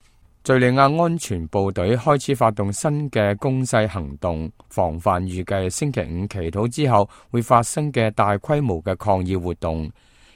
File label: cantonese, news